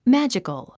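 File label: "magical", English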